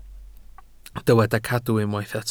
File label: "cadw", Welsh